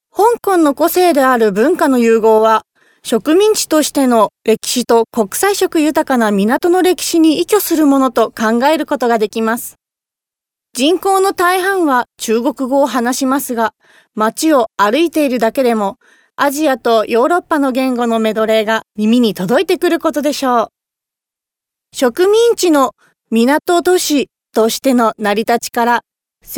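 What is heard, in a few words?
Japanese female corporate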